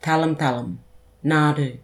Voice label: "taləm-taləm" nardoo